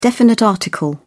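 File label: "definite article", British English, dictionary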